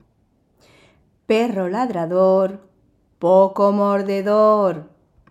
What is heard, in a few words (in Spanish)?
Refrán castellano Perro ladrador